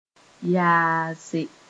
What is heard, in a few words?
"yaase", Moore